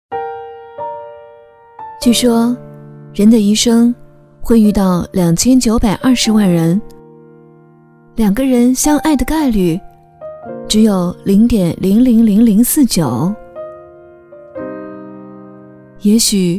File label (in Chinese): 女 电台节目 散文 抒情 温情